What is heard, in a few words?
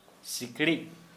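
"mosquito", Santali, male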